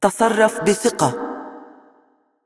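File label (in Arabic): Arabic, assist; VO; ar AE; Karma; bloom minigame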